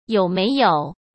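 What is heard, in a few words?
"有没有", Mandarin Chinese; chinese flashcards; hsk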